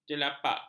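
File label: "jelapak", Malay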